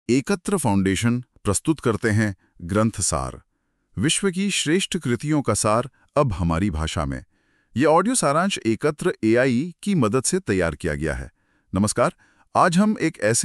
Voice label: Hindi; Train to Pakistan